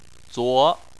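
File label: "zuo" second tone, chinese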